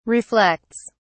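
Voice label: "reflects", English, uk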